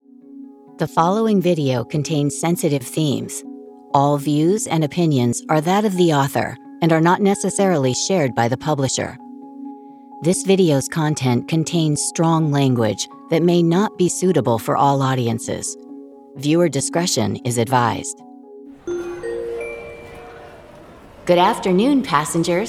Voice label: english american announcements; Englisch (US); Vereinigte Staaten